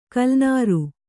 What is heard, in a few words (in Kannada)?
"ಕಲ್ಲನಾರು", Kannada